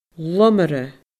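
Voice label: "lomarra", Scottish Gaelic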